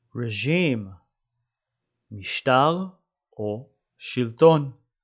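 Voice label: "regime" English, "משטר שלטון" Hebrew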